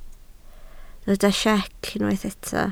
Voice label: "siec", Welsh